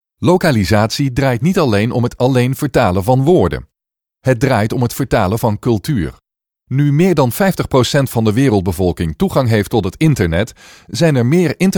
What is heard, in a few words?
dutch male